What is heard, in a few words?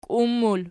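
"kiun" plural, Archi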